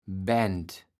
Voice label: "Baend", kaevels platt

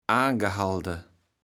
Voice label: "aangehalde", kaevels platt

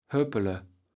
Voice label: "höppele", kaevels platt

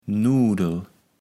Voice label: "Nuudel", kaevels platt

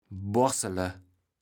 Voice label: "boarssele", kaevels platt

